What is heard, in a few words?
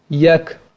"jek", Kurmanji